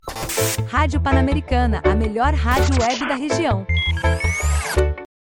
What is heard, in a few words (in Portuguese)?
Feminina IA